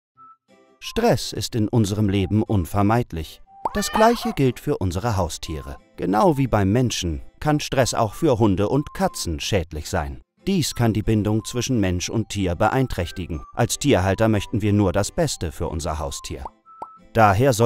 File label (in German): Imagefilm; Zylkene